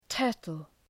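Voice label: "turtle", English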